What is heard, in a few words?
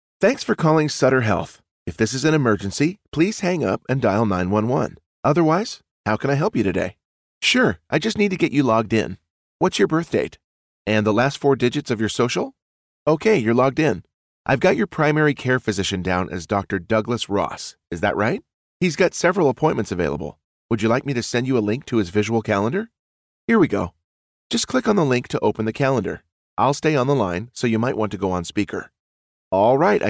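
IVR, Male Voice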